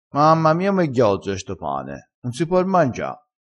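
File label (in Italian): "ghiozzo", vocabolario dialettale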